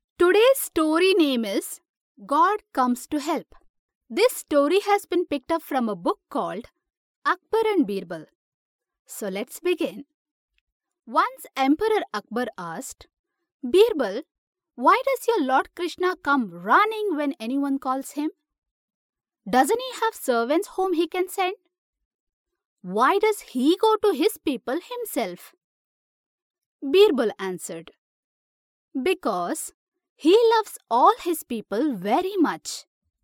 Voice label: female kannada voice over talent english